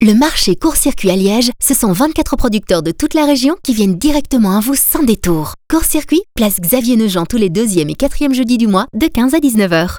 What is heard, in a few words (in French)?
annonce radio; RTC